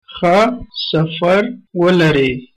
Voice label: bon voyage, pashto

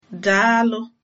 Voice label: welcome, igbo

